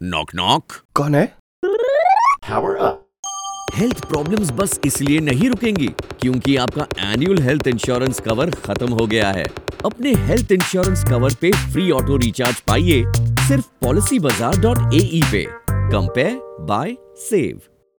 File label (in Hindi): Hindi spot, radio